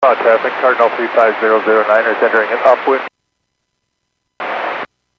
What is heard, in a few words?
Airports 122.7, Radio; KSTE